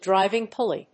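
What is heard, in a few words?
"driving-pulley", English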